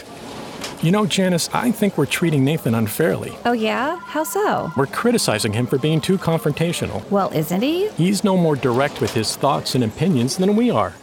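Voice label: eLearning diversity training business role play, male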